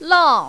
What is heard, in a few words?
"lao4", mandarin, female